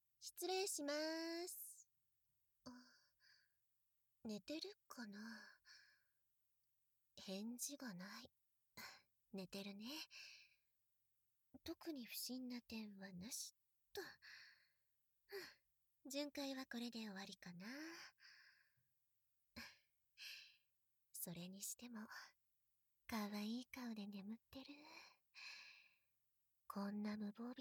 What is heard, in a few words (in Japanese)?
耳舐めパイズリ